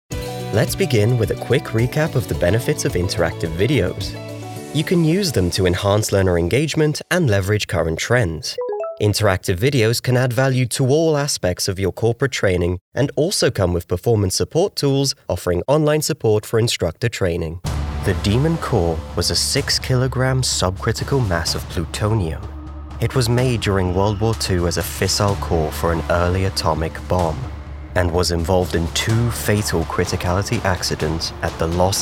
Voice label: Narration